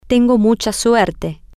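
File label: I am very lucky, spanish, female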